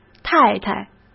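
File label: "太太", Mandarin Chinese; chinese-flashcards; hsk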